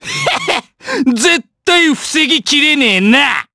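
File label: Vox Skill, Japanese; Bernheim; kingsraid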